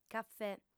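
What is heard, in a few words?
"cafè", female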